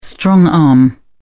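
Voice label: "strong arm", British English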